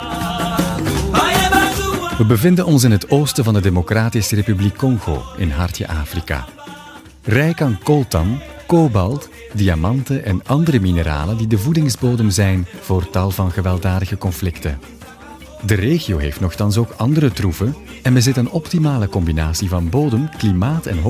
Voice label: flemish documentaries